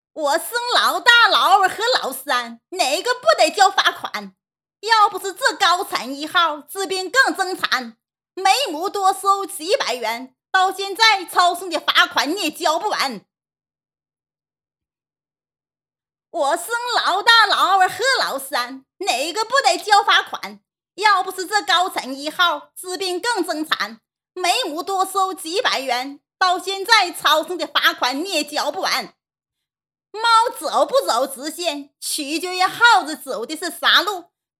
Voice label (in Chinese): 动画 模仿 小品, 女; 宋丹丹黄宏